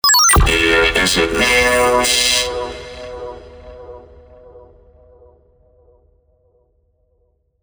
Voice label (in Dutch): RoboVoice Hier is het nieuws singing Robot